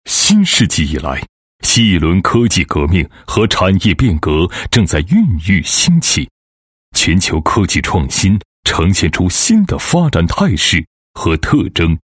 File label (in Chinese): 男 专题 智能制造企业转型, 国语男声配音